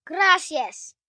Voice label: Spanish Thank U, Etiquette, 1 boy, 6 yrs., Spanish; Talking; Voice Box